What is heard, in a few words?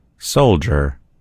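"soldier", American English; gcompris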